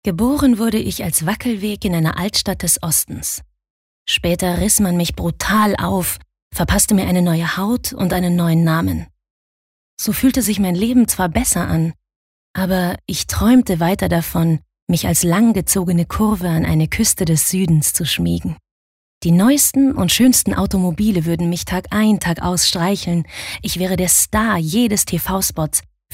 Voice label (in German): Schauspiel Sanft